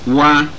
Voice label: split, Igbo

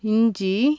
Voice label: "ginger", Tamil consonants; JIPA

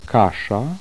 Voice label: voiceless retroflex medial, polish

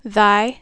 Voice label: "thy", American English; Consonants

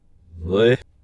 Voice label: pill reverse

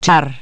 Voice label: charm, Bulgarian Consonants

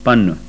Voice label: sindhi

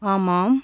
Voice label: "yes", Tamil consonants; JIPA

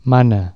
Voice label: he commands, Catalan Consonants

